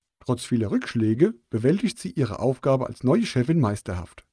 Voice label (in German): Hessisch Dataset Sample